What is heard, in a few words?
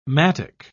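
"mattock", British English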